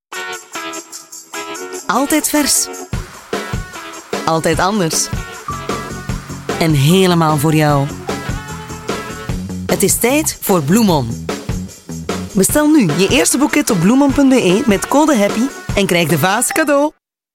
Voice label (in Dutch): VL commercial; Bloomon